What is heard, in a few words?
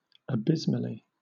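"abysmally", British English; pronunciation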